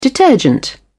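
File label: "detergent", British English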